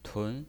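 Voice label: "tun", pinyin voice, second tone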